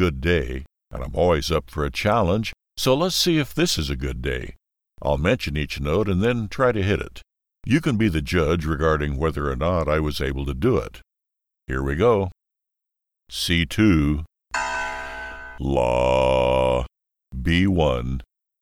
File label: Vocal Range